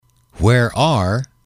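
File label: where are, male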